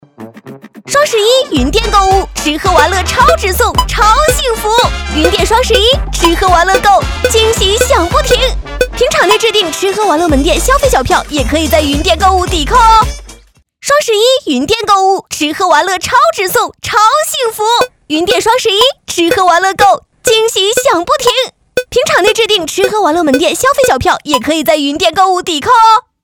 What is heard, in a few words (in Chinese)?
双十一云店病毒广告